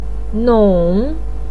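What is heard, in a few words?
"nong", Mandarin Chinese third tone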